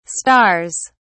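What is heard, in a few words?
"stars", British English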